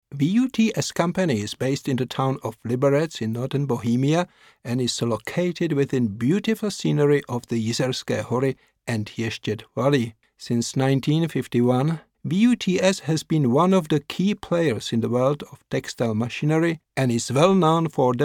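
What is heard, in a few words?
Czech English male; accent of czech republic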